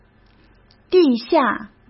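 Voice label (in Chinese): "地下", Mandarin Chinese; chinese flashcards; hsk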